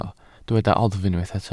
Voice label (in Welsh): "oddf" Welsh